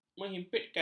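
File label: "menghimpitkan", Malay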